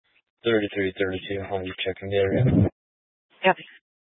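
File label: Police 1 Dispatch, Scanner audio recording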